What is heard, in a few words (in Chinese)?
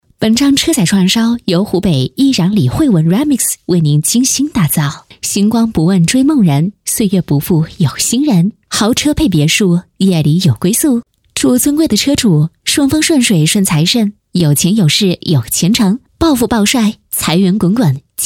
车载串烧, 女